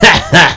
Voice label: knife kill, man; MultiKill